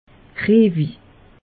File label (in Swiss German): "riewig", alsace; meteo